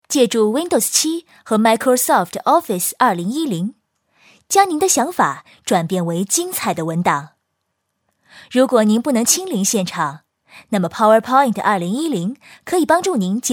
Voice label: cn commercial, kinesisk-mandarin